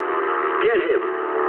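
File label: English attack position mood low; speech; War Thunder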